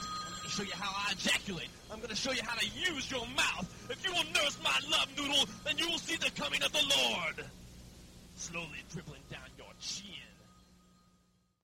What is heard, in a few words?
preaching